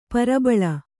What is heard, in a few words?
"ಪ್ರಬಲಿ", Kannada